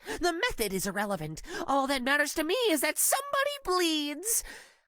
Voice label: voice prologue; monomeow